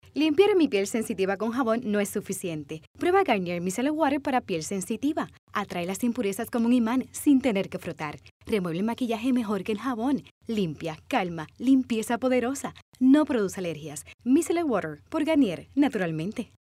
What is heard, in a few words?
Micellar Water VO; Garnier